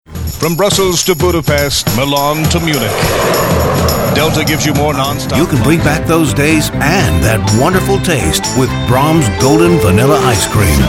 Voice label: Commercial